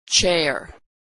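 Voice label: "chair", American English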